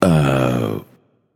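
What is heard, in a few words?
"seriously", male; baronsamedi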